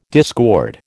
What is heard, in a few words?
"discord", American